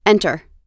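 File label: "enter", American English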